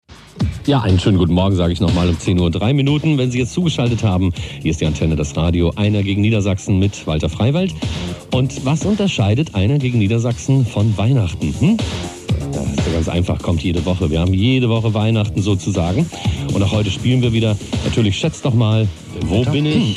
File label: aircheck medley